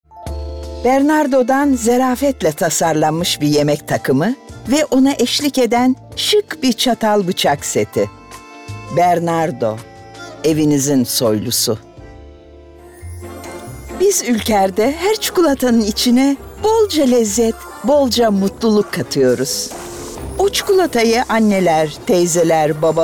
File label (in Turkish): Reklam